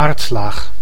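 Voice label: "aardlaag", Dutch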